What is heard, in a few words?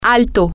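Spanish, tall, female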